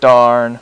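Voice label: "darn", male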